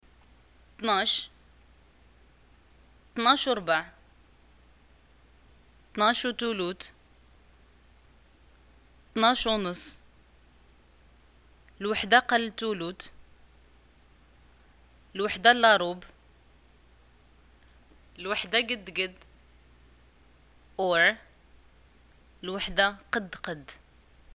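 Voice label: time, Moroccan arabic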